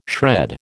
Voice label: "shred", American